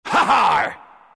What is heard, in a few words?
announcer compliment harhar, pirate; dlc workshop; capn